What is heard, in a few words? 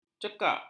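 "cekak", Malay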